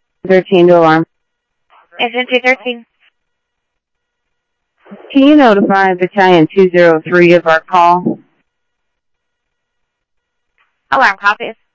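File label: police radio dispatch, Scottsdale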